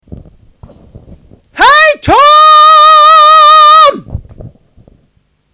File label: hi tone